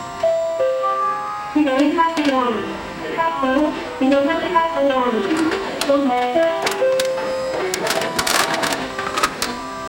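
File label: dealer in casino announcing, announcement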